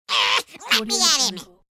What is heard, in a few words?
cartoon voice let me at him